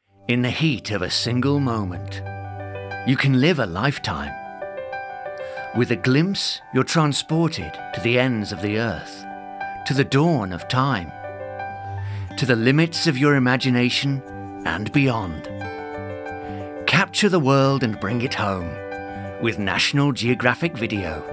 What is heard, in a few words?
Commercial Voice